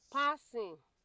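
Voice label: "passing", Nigerian Pidgin, female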